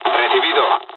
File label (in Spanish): dialogs spanish; War Thunder